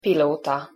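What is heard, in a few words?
"pilota", Hungarian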